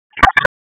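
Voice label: Raleigh Police Northeast District Tactical, p25; wake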